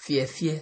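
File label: "fear fear", Nigerian Pidgin, male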